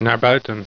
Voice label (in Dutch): "naar buiten", dog